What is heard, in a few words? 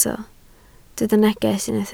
"neges", Welsh